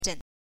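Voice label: "zhen", Mandarin Chinese pinyin, neutral tone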